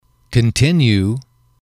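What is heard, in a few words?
"continue", English, male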